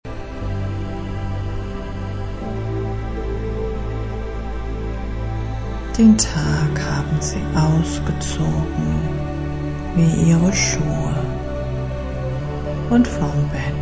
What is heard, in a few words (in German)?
Auszug aus der dritten Entspannungssequenz; abschalten lernen in 3 Wochen